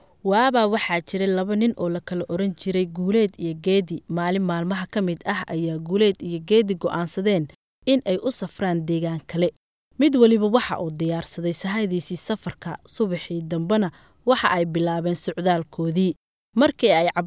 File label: somali female